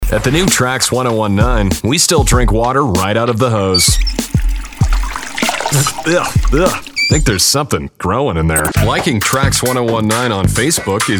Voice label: radio hot ac, radio imaging

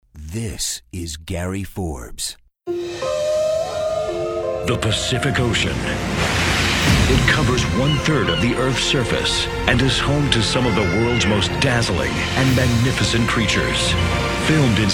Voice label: promo men

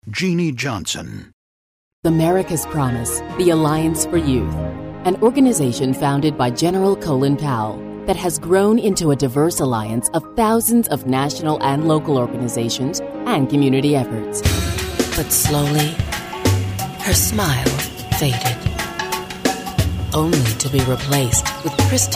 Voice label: narration

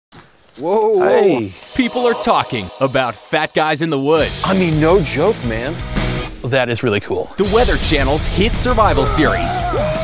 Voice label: promo, men

